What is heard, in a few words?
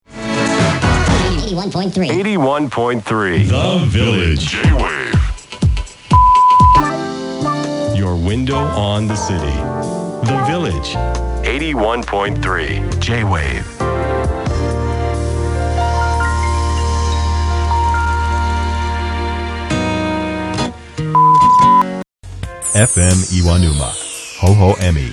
radio